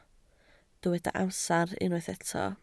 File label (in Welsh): "amser", Welsh